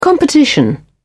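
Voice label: "competition", British English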